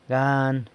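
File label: "gan", San Lucas Quiavini Zapotec; gain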